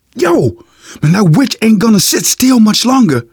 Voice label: witch getting angry, survivor voice; manager; Left 4 Dead 2; left4dead2 dlc2

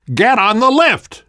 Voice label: world hospital, namvet, survivor voice

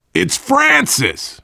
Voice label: generic responses, voice, biker

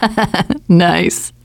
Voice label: gas tanks, teengirl, voice

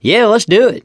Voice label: "yes", survivor voice, mechanic

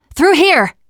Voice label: generic directions, teengirl, voice; player; survivor

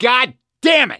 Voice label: reaction negative, voice; gambler

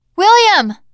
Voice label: name, teen girl; bill

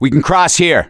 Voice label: misc directional, voice; gambler

